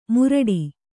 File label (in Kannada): "ಮುರಡಿ", Kannada; muraḍi; noun